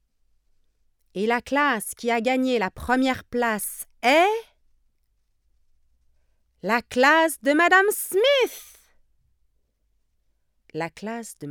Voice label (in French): robots fr; marmite